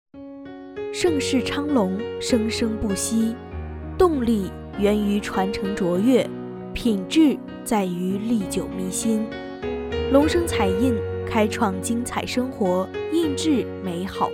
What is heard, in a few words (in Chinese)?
女配精品广告专题